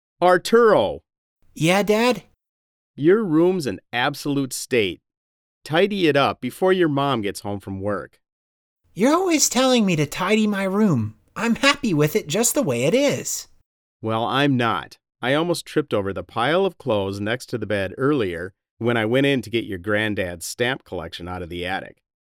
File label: Conversation; Real Heroes 4